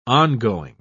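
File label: "on-going", English, British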